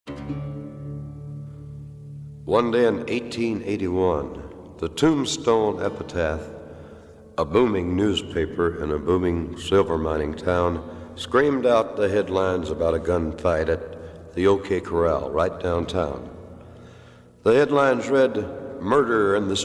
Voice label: Narration